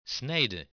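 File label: "snijden", Dutch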